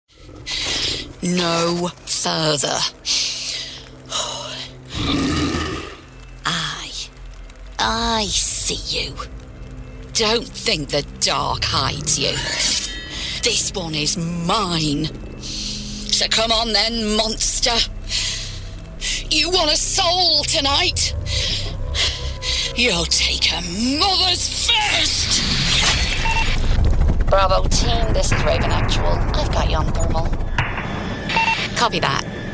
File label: english british video games